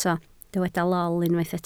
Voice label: "lol", Welsh